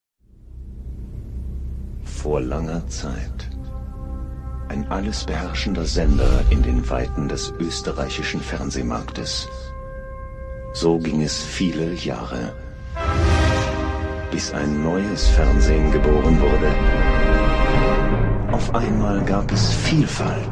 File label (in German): Trailer; Sendergruppe Puls 4